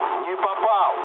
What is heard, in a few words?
bomb failure, mood low, speech ru; War Thunder